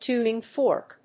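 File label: "tuning fork", English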